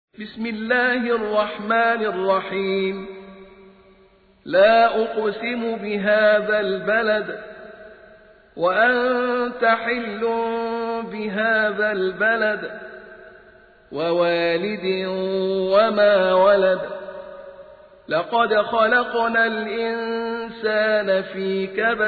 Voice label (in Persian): ترتیل; سوره بلد